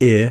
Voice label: phoneme short i, American